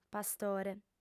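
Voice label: "pastore", Sardinian, female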